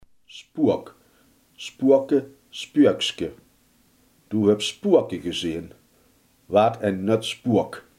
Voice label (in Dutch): "spook", Limburgs